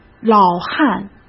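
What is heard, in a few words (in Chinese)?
"老汉", Mandarin; chinese flashcards; hsk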